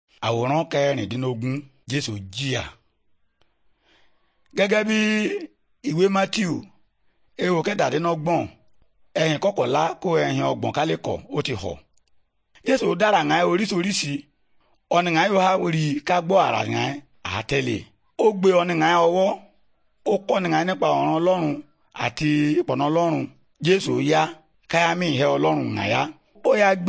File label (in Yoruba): good news yoruba, bible